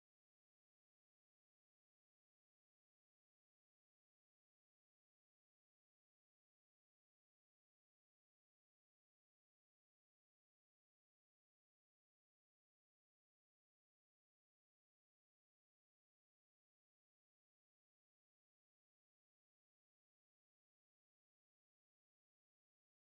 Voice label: Weight Room VO; War Room; To Hell and Back Integration